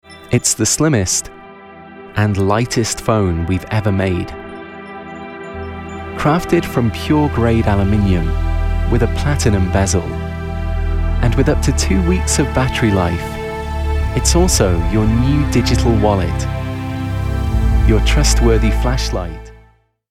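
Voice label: neutral accent RP, Male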